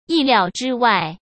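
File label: "意料之外", Mandarin Chinese; hsk; chinese-flashcards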